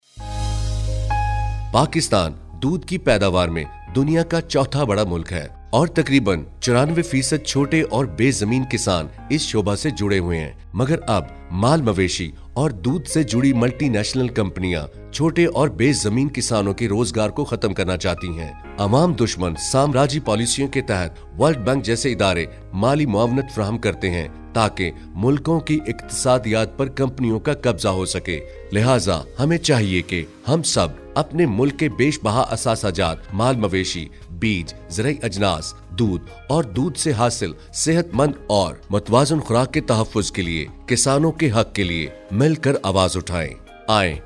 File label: Radio Message